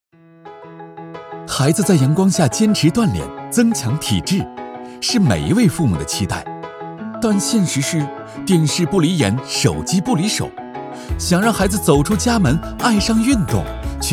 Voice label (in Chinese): 男 MG 样音 有趣